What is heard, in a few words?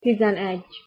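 "tizenegy", Hungarian pronunciation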